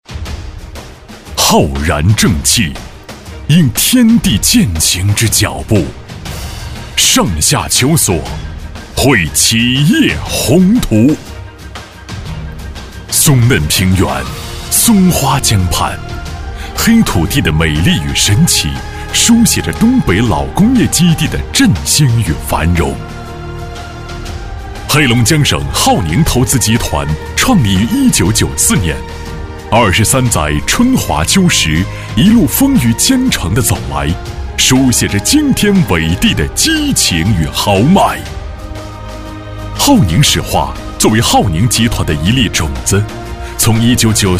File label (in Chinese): 男国 企业宣传片; 浩宁集团